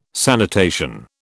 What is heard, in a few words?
"sanitation", British